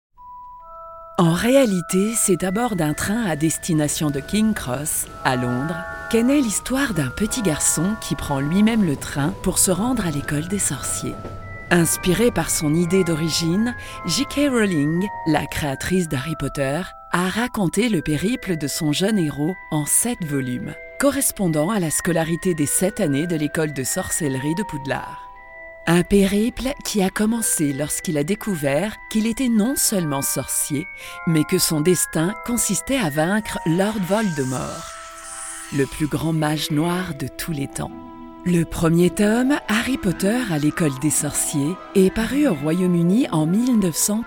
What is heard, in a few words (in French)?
French Audio